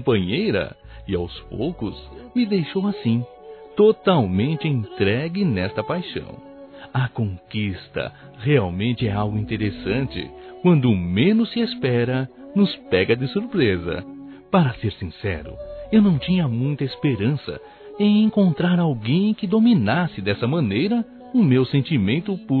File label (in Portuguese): VOCÊ ME CONQUISTOU M; Telemensagens; Conquistas Cantadas paqueras; masc; Telemensagens 2017